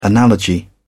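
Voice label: "analogy", British English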